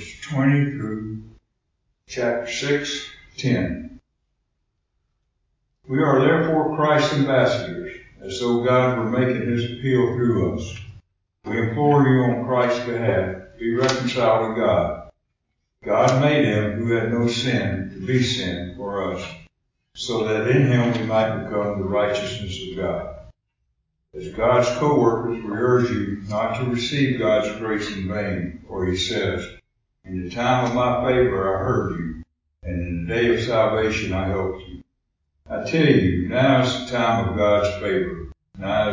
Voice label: Epistle Reading